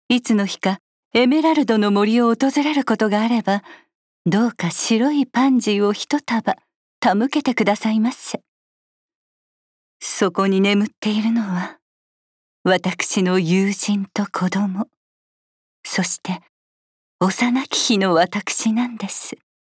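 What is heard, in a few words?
voice japanese; LadyNifle; Nifu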